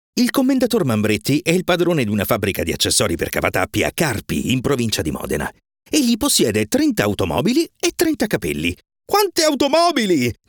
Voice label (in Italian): Audiobook Fiaba